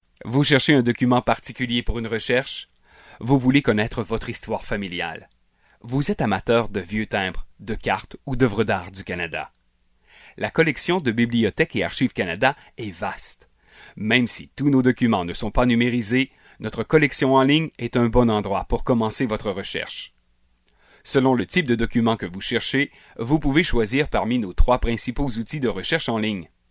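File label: French Canadian male Corporate; voice over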